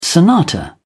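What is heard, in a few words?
"sonata", British English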